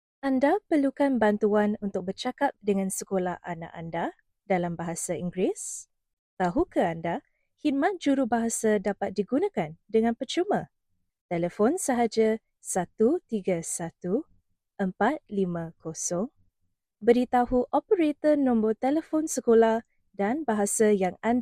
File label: malay, audio message